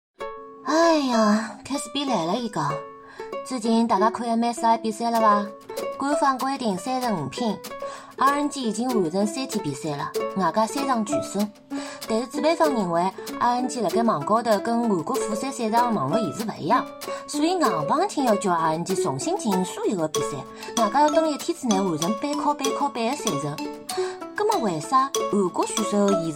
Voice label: 沪语

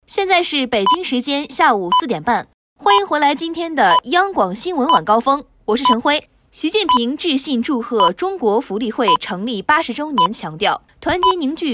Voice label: 政治新闻 开头

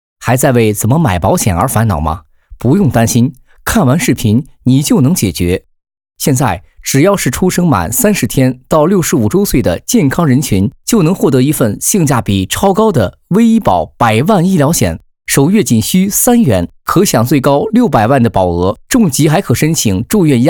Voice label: 抖音广告时尚叙述; 泰康人寿

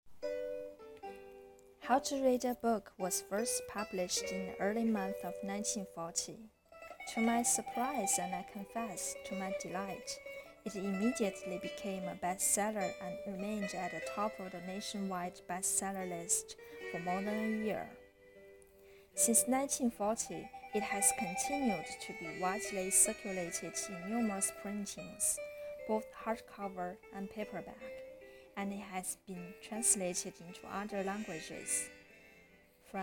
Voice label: 标准英语温和朗读

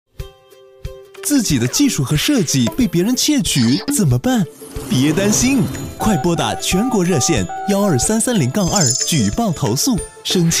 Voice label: 动画 知识产权 维权 宣传

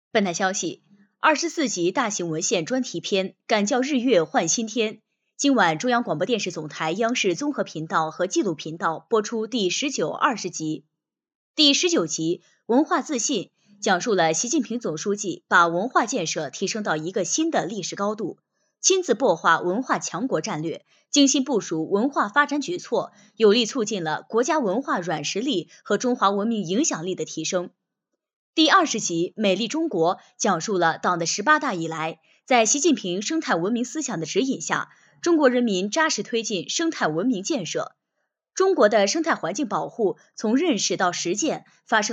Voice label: 大型文献专题片 播报; 敢叫日月换新天

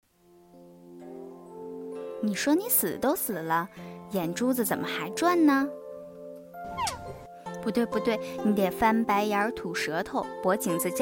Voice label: 角色 配音

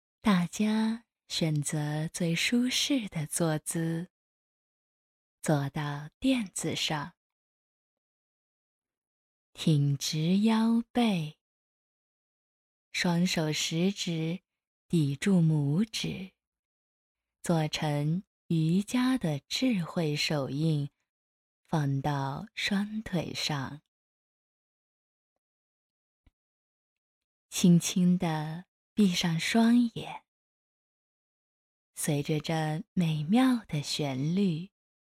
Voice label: 瑜伽引导语

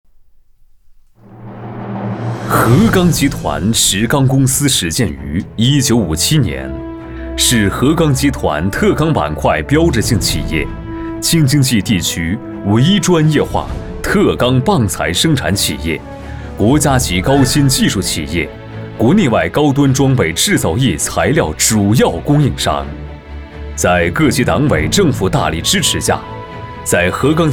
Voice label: 宣传片 男 沉稳 大气 公司宣传; 浑厚大气男个配

